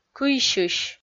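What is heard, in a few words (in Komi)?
"кыйсьысь", Komi, female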